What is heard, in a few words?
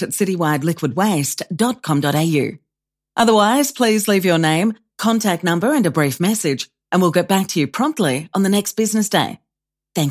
Phone After Hours Greeting